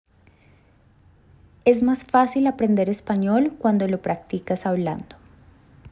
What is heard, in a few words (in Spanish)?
Frase Femenina